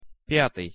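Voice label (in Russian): "pjatyj", Russian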